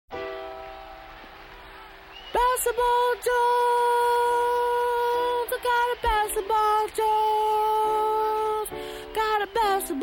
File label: Concert Commercial; Academy of Music-Ny